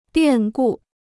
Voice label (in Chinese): "变故", Chinese